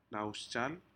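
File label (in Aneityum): "nahosjal", Aneityum word, shellfish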